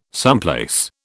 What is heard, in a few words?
"someplace", British